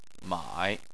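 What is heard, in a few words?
"mai", Chinese, third tone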